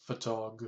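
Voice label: "photog", American English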